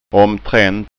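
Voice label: "omtrant", Scanian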